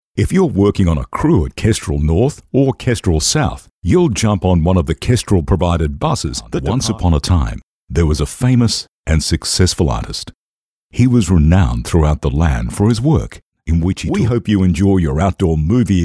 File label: Natural